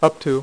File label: "UP TO", dealer's speech